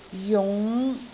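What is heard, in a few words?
"jung5", Cantonese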